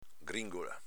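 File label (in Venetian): "gringola", Veneto dialect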